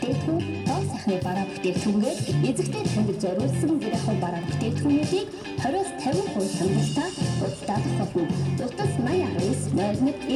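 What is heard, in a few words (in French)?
Annonce publicitaire rue; Moron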